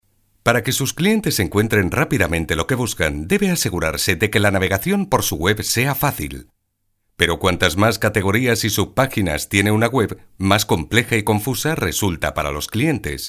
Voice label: CORPORATE